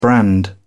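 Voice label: "brand", British English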